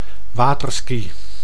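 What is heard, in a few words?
"waterski", Dutch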